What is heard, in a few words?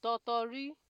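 "totori", Nigerian Pidgin, female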